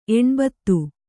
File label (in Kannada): "ಎಣ್ಭತ್ತು", Kannada